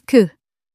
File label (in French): "que", French